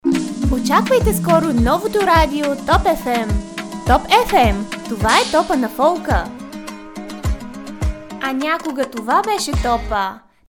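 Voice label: Bulgarian, female, radio jingle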